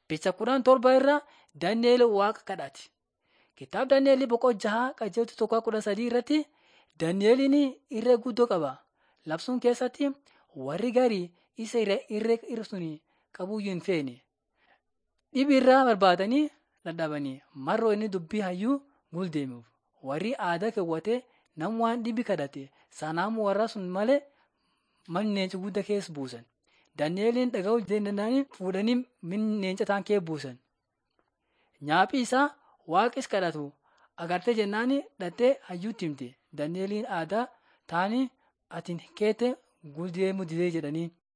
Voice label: borana sakuye